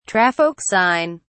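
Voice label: "traffic sign", British English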